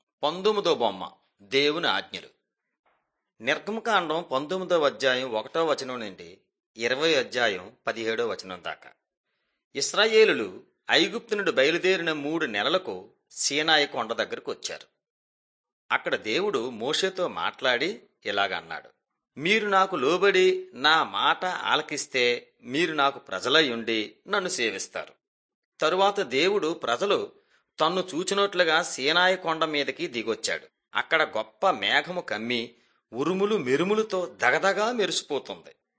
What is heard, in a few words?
telugu bangladesh; mighty men of god